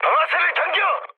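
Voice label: Korean attack position mood med, speech; War Thunder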